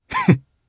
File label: Happy, jp; Theo; kingsraid